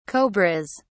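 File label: "cobras", British English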